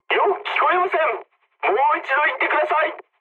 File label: jp chat, speech jp